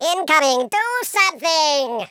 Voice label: "incoming", Patronising speech

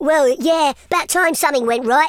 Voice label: "excellent", Teenage Angst speech

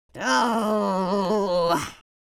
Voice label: sad

